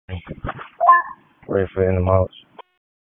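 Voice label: Police Northeast District Dispatch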